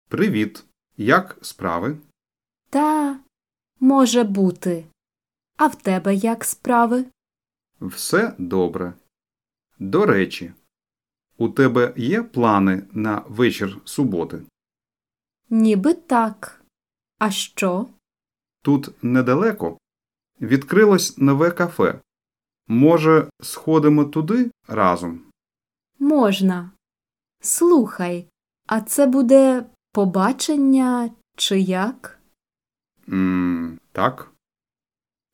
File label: Dialogue Slow